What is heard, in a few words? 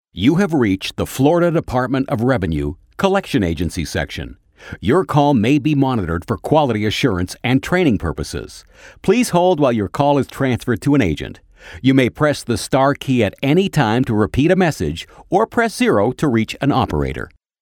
Voice Prompt, Auto Attendant; Auto Attendant, ACD and IVR samples